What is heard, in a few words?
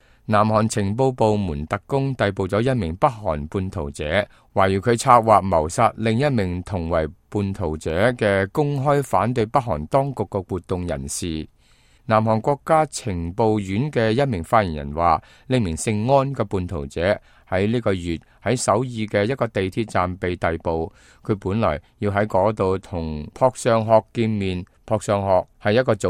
cantonese, news